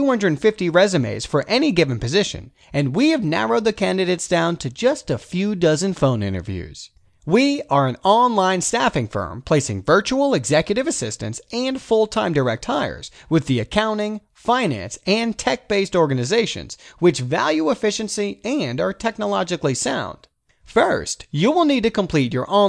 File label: Promo Video Relax, VoiceOver